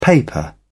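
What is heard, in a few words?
"paper", British English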